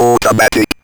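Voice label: ROBVOX, robot voice; E-Lab; X Static Goldmine 1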